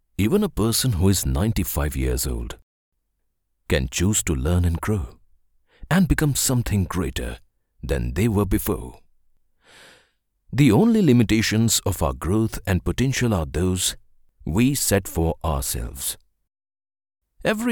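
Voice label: English M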